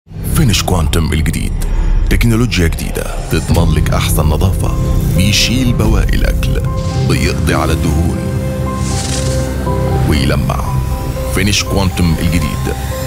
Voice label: TVC, Egyptian Dialect; Finish Countum

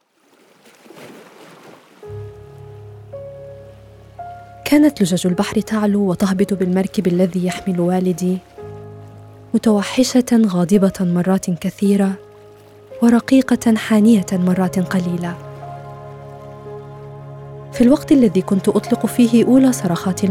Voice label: AR Classical Arabic Story Audio Book; Hajer Story

